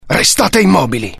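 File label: curious, Italian; mohaa; dialogue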